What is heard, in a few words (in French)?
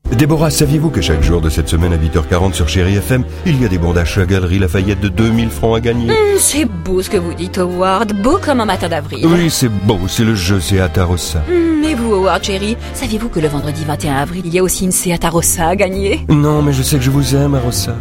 voix spciale snob grave; seat arosa